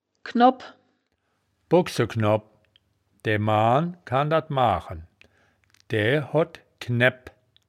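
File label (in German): "knobp", wittlicher woerterbuch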